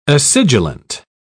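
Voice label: "acidulent", British English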